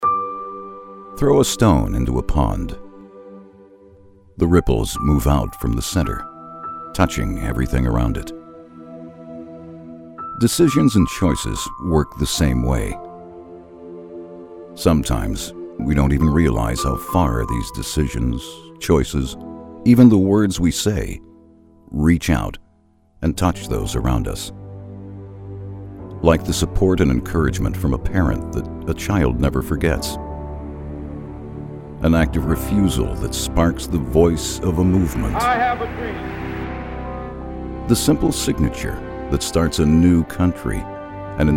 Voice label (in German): Eng US Kommentar Poetisch